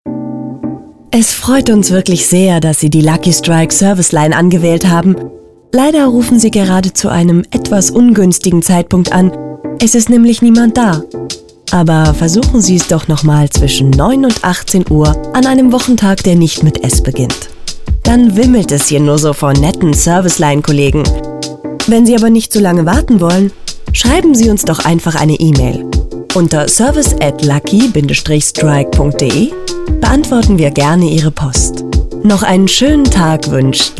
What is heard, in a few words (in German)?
telefon, deutsch frauen